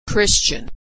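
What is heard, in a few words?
"Christian", American English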